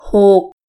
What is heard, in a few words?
six, Thai; thai numbers